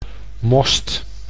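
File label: "most", Dutch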